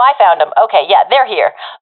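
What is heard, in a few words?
sees enemy, professional female; vo; Counter Strike Model Pack; CS:GO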